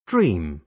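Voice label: "dream", British English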